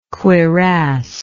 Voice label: "cuirassed", english